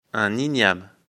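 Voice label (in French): "igname", French; afrikalan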